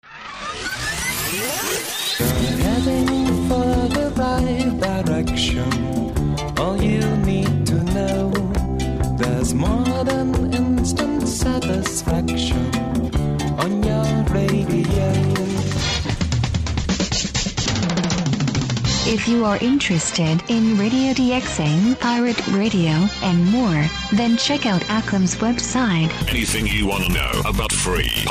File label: PROMO long version english female male